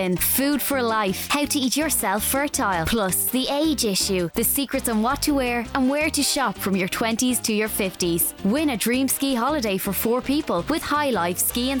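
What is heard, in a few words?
English Irish Female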